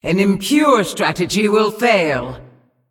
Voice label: Female Demon Thinking